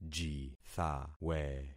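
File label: "jithawe", concatenated syllables; Affixing experiment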